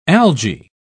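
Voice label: "alga", British English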